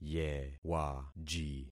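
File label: "yewaji", concatenated syllables; Affixing experiment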